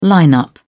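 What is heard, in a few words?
"line up", British English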